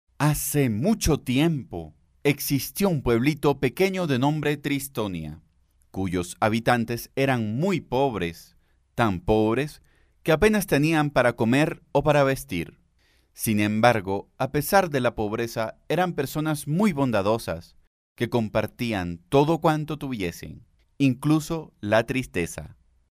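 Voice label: Spanish Americas, male, voice over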